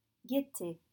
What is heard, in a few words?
"gaite", French